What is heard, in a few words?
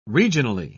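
"regionally", British English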